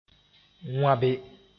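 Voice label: "wãbe", Mooré; eat by chewing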